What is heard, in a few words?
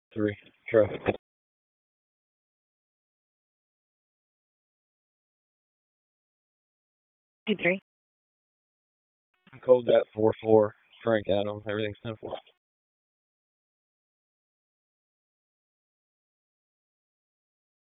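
Police Northeast District Dispatch; Raleigh; wake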